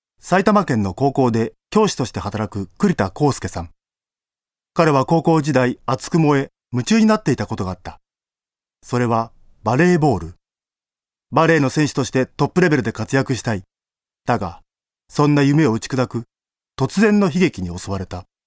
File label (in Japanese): ドキュメンタリー